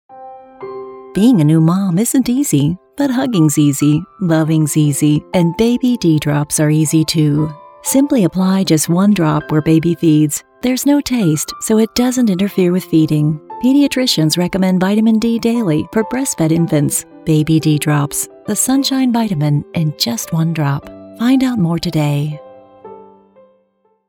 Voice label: Baby Spot Music Mix

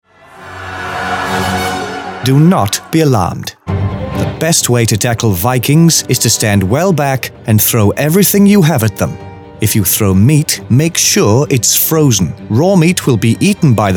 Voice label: Characters Accents

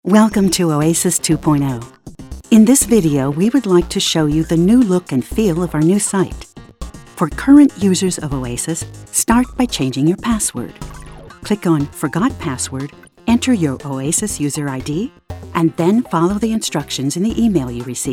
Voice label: Corporate Narr VO

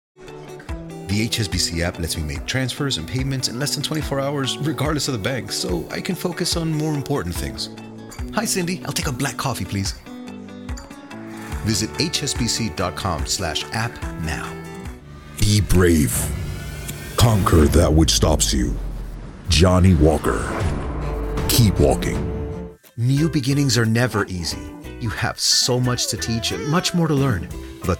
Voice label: Commercial English

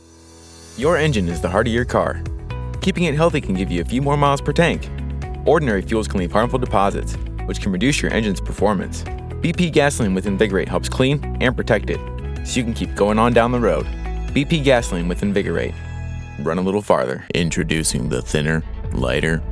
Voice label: commercial demo reel

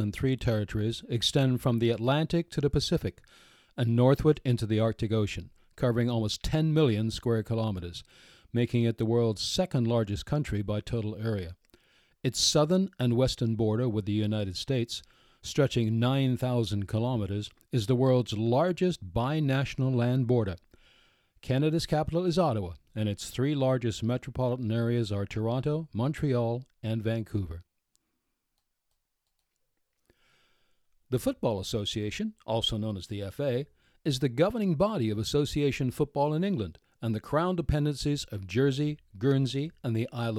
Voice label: Narration Reel

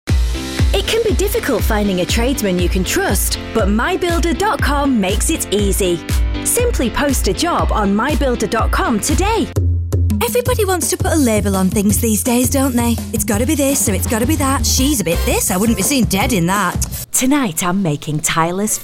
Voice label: Commercial Voiceover

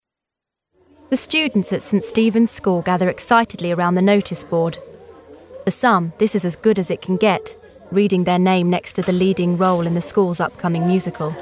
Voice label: Narration

